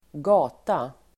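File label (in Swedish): "gata", Swedish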